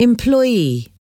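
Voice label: "employee", English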